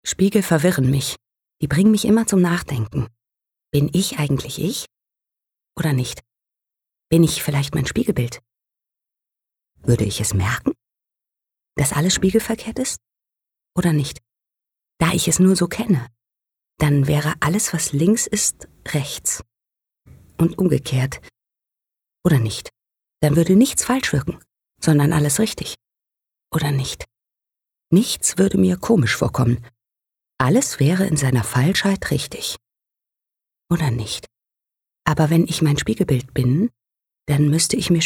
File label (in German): Innerer Monolog; LOFT VOICES